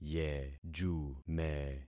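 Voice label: "yejume", concatenated syllables; Affixing experiment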